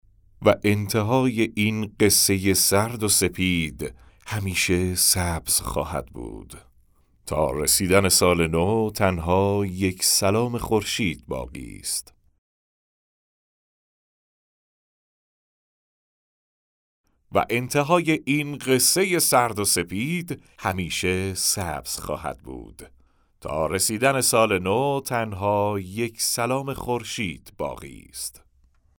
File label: Narration; nowruz